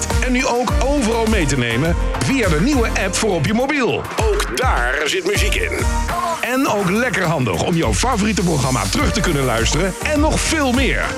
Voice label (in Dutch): promo Radio; ijsselstreek